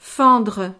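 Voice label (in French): "fendre", French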